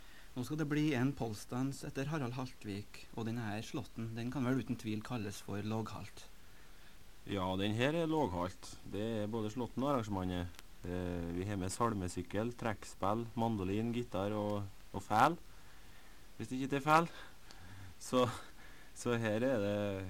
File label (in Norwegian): Tale